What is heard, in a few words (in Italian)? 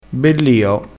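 "bellio", dialettale crespinese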